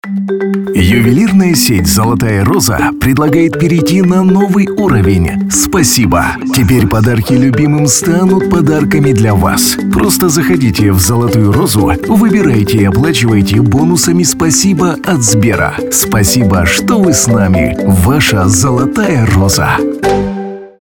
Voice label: russian commercial